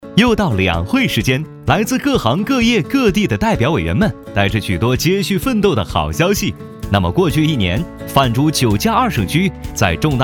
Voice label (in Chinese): 男 轻松灵动; 男1号（沉稳、大气）; 男1-MG动画飞碟